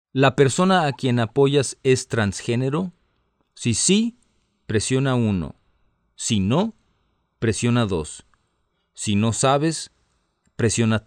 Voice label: Spanish, hotline